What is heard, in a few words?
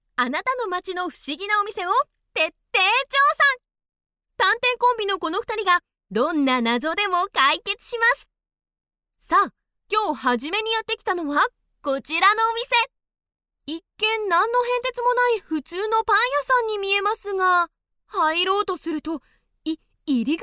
female japanese voice over upbeat